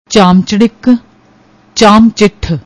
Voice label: "chamchadik", Punjabi; ਚਾਮਚੜਿੱਕ

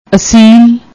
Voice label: "assiil", Punjabi; ਅਸੀਲ

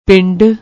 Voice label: "pindd", Punjabi

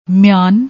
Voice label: "meeaan", Punjabi

